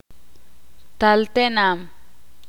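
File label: "Aldea" Spanish, Ixil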